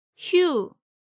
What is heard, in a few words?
"Hyu", Korean; Hangeul sounds